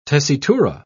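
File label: "tessitura", English, British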